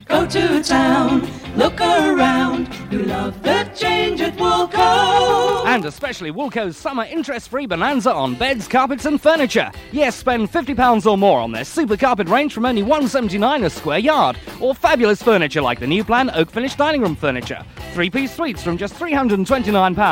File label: Radio Advert; Woolco Furniture; Radio Hallam